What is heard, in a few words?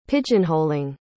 "pigeonholing", pronounce